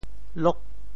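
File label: "lok8", Teochew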